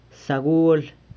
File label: "sagual", San Lucas Quiaviní; consuegro